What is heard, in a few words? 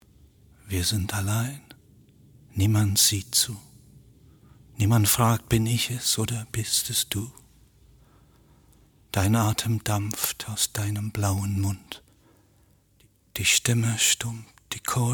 German poem